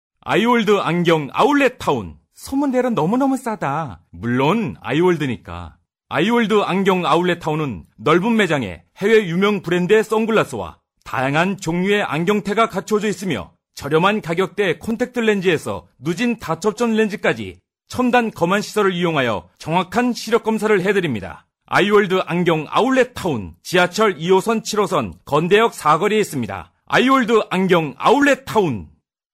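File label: Korean male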